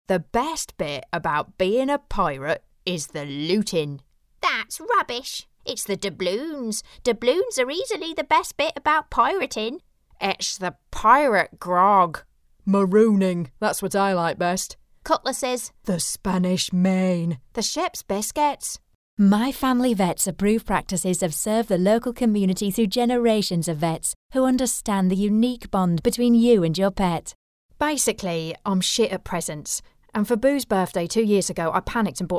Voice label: english british impersonations, british voice actors